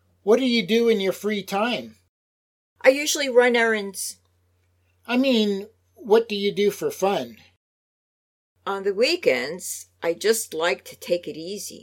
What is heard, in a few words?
Natural Speech